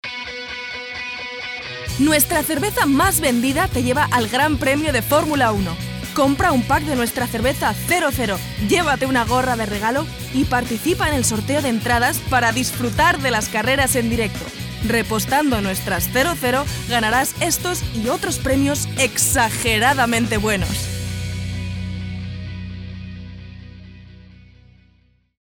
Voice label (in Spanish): ESP COMERCIAL